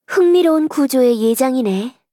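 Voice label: voice, Korean; Equip; Eve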